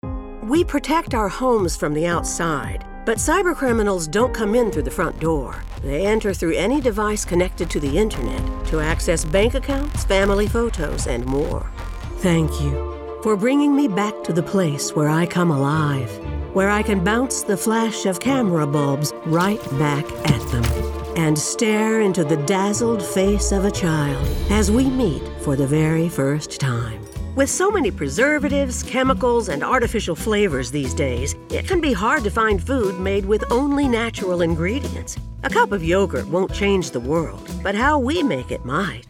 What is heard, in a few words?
Narration